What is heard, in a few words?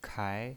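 "kai", second tone, pinyin voice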